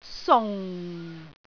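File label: "sung4", Cantonese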